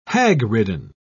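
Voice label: "hagridden", British English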